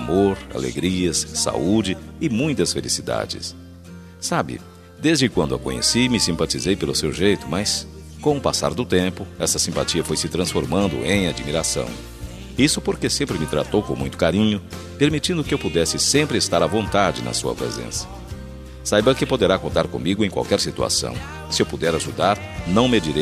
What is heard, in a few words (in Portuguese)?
ANIV SOGRA DISTANTE MASC, telemensagem aniversario sogra